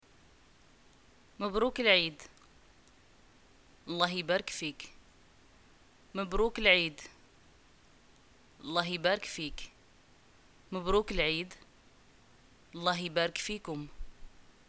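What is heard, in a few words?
greeting eid and response, Moroccan Arabic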